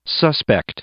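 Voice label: "suspect", English, male; noun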